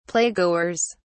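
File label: "playgoers", British English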